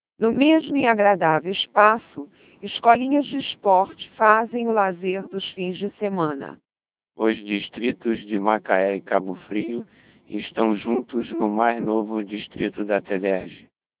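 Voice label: speech CODEC2 2400 bps, Portuguese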